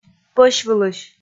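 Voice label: "пӧсьвылысь", Komi, female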